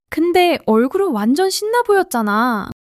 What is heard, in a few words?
excitement; monologue